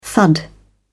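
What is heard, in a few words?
"thud", British English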